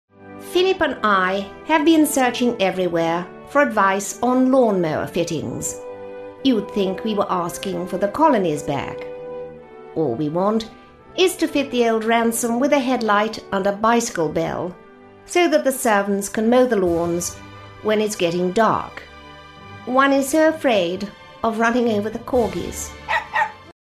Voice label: queen, readings